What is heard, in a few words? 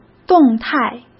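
"动态", Mandarin Chinese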